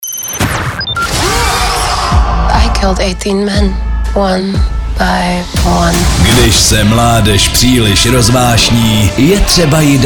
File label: liner; evropa2; novi mutanti